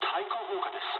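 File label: damaged aa mood low, speech japanese; War Thunder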